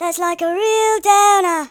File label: fatality, speech, Hippy